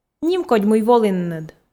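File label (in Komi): нимкодь мый волінныд, Komi, female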